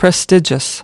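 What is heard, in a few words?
"prestigious", US English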